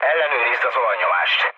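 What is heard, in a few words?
Hungarian damaged oil mood low, speech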